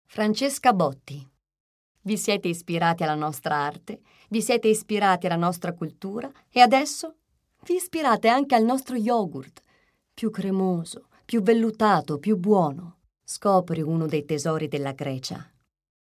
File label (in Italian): pubblicit